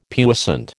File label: "puissant", American